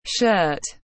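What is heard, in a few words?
"shirt", English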